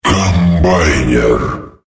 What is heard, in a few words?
"ultrakill", female